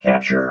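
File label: "capture", robot voice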